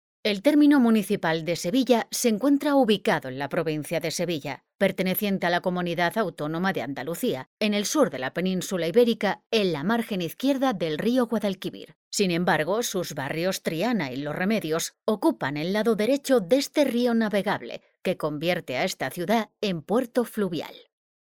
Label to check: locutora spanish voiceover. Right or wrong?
Right